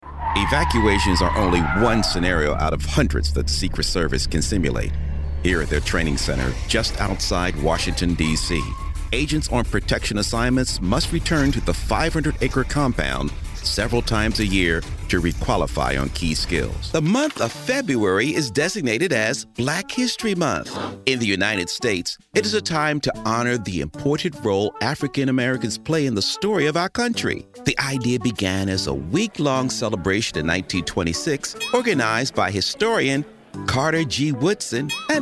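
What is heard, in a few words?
narration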